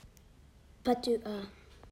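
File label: "patuha", Māori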